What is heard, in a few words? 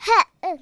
jump, Speech, Kidz; Worms Armageddon